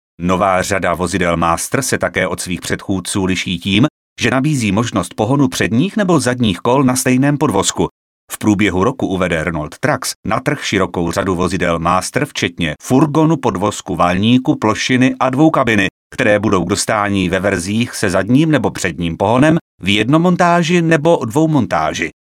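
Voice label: male Czech documentary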